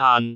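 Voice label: "aan2", Cantonese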